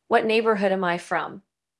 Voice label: Voice Acting es